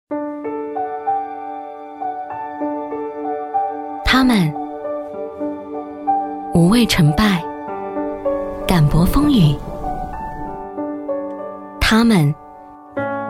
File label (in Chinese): 女 青春独白; 20周年